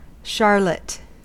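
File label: "charlotte", US English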